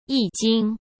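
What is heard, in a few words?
"一经", Mandarin Chinese; chinese-flashcards; hsk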